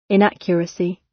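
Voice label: "inaccuracy", British; Language, linguistics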